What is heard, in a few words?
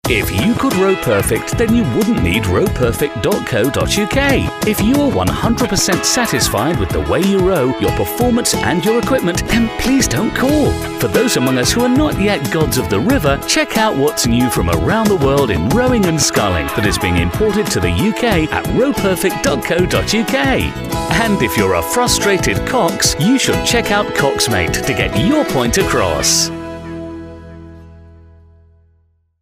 commercial; row perfect